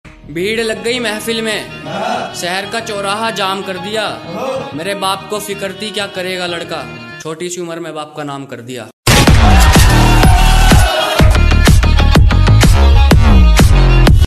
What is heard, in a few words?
Attitude Shayari